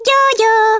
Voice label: "stupid", Hungarian; Worms Armageddon

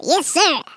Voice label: "yes sir", Norwegian; Worms Armageddon

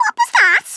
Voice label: "missed", Scouser

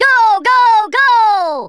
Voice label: orders, Drill Sergeant; Worms Armageddon

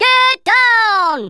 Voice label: oh dear, Drill Sergeant; Worms Armageddon

